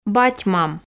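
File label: "бать-мам", Komi, female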